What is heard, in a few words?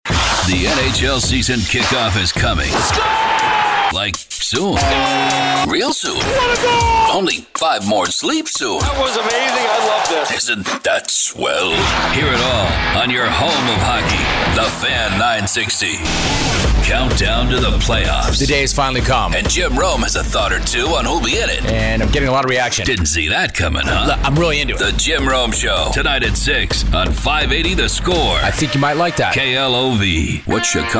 Sports News Imaging